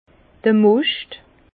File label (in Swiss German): "moscht", Bas Rhin